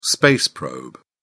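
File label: "space probe", English, British English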